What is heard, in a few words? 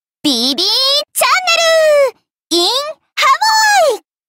voice lines english; fate grand order